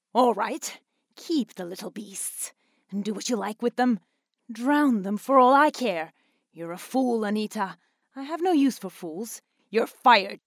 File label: cartoon angry, english